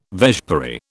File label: "vespiary", British